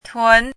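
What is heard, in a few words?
"tun2" Mandarin Chinese, rising tone; chinese-voice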